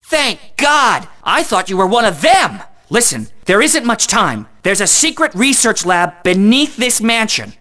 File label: Scientist Dialogue; Timesplitters 3